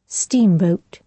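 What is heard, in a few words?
"steamboat", British English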